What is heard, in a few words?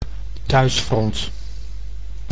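"thuisfront", Dutch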